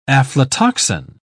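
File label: "aflatoxin", British English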